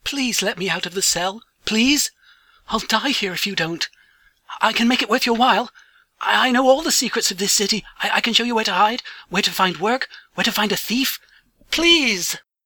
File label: audition prisoner, voice acting; imperial; imperial voice auditions